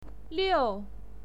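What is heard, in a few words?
"liu", pinyin, fourth tone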